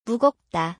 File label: "mugeopda", Korean word